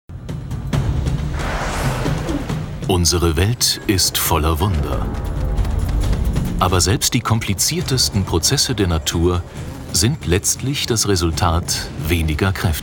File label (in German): Doku; ZDF